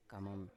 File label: "kamam", Biak